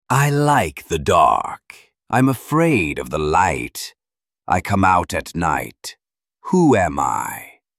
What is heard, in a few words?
vampire, mystery voices